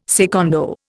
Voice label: "secondo", Indian